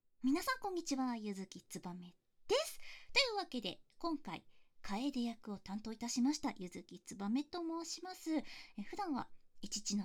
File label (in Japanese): フリートーク